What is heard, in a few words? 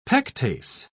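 "pectase", British English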